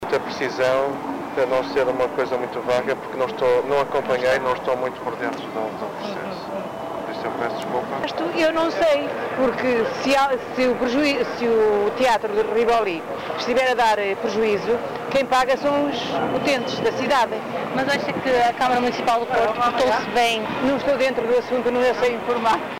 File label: vox pop; rivoli